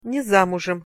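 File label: "ne zamuzem", Russian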